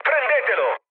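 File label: Italian attack position mood low, speech; War Thunder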